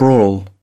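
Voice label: "Brutal", British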